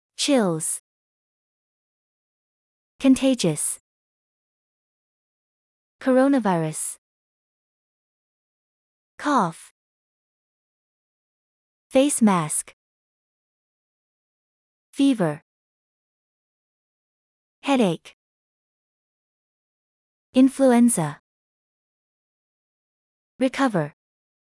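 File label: American; colds flu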